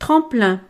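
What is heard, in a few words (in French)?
"tremplin", French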